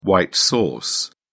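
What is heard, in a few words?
"white sauce", British English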